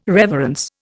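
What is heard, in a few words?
"reverence", Indian English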